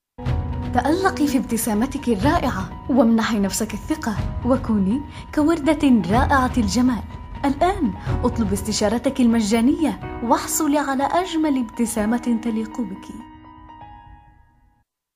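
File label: Arabic, female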